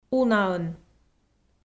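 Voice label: "унаын", Komi, female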